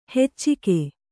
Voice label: "ಹೆಚ್ಚಿಗೆ", Kannada

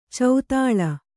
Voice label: "ಚದಲು", Kannada

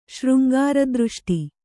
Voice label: "ಶೃಂಗಾರದೃಷ್ಟಿ", kannada

